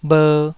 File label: "bher2", taiwanese